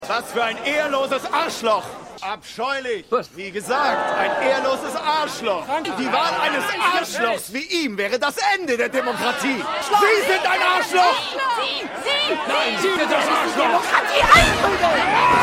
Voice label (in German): Mann; Lebe Larry